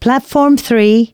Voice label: female, announcements